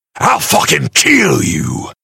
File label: desperation; killsounds; drifter; drifter flow